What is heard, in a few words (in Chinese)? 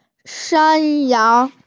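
"shan1yang2", Mandarin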